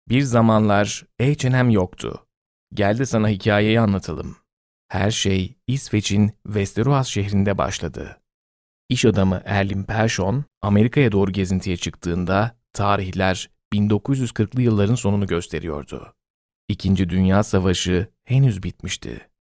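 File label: Turkish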